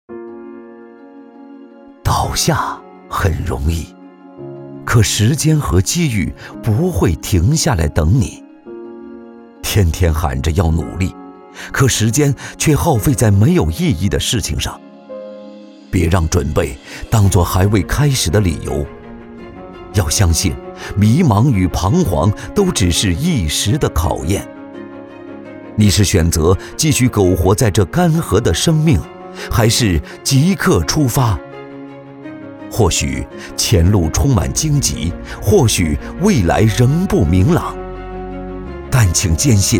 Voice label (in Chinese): 男 走心旁白 励志浑厚; 倒下